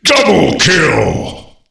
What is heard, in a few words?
double kill, Announcer Voice; Unreal Tournament